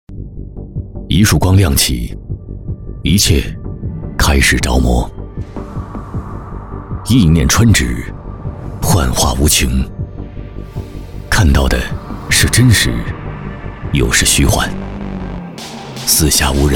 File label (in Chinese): 男 科技广告 科技感; 高端品质; 大气浑厚